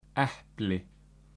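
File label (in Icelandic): "epli", Icelandic; matarhefti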